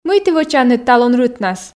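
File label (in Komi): мый ті вӧчанныд талун рытнас, Komi, female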